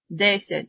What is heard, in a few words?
"10" Ukrainian